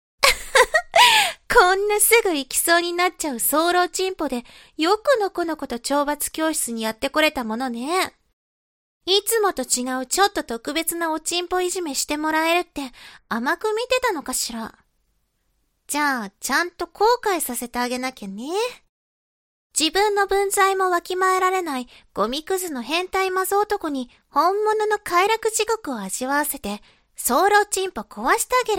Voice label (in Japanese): 懲罰中音声, ASMR